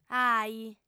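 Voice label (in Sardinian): "aih", lingua sarda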